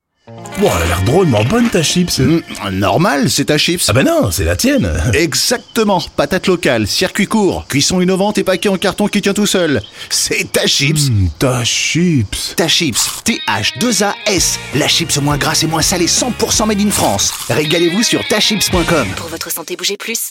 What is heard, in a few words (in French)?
spot radio; THAAS CHIPS